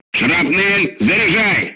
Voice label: voice message load CANISTER, crew tanks russian